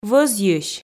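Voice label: "вӧзйысь", Komi, female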